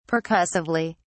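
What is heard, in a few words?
"percussively", British English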